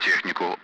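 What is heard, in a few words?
Speech, Russian; Allied Flight; DCS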